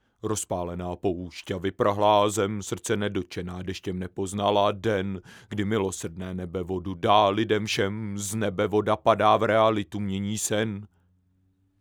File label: mic test, MK319